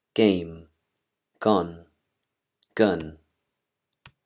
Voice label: english pronunciation rules